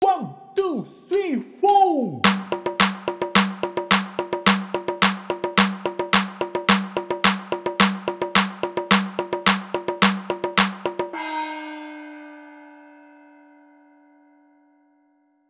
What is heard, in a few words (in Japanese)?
早口言葉リズム（中級編-普通）